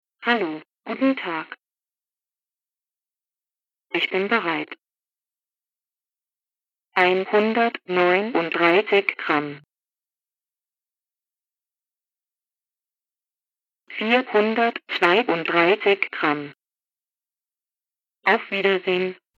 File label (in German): myweigh vox3000 sprechende Waage